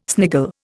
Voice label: "sniggle", Indian